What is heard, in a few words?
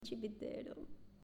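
"Cimitero", Italian, female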